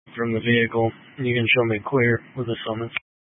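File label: Dispatch, Scanner audio recording; Troop 3A Dispatch (W. Weld, N. Boulder)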